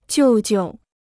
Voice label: "舅舅", Chinese

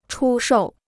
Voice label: "出售", Chinese

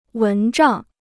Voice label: "蚊帐", Chinese

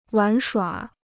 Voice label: "玩耍", Chinese

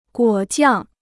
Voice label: "果酱", Chinese

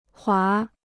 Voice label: "滑", Chinese

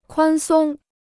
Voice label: "宽松", Chinese

